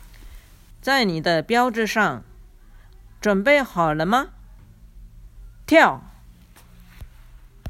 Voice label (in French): chinois à vos marques prêts sautez